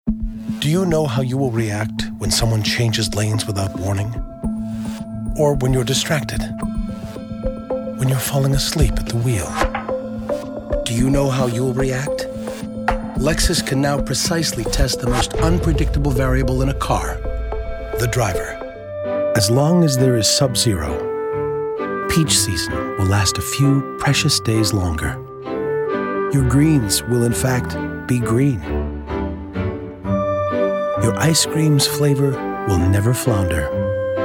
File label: commercial